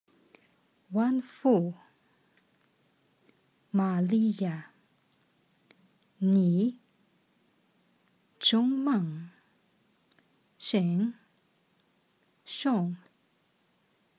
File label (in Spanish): ave maria chino mandarim pausado